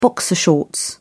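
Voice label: "boxer shorts", English, British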